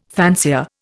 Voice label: "fancier", Indian